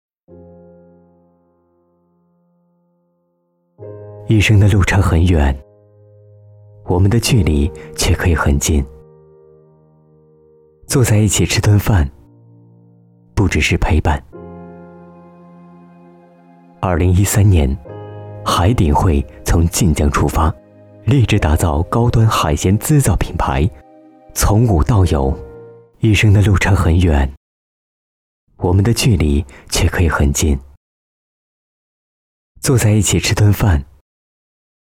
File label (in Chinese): 旁白